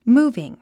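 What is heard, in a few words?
"moving", English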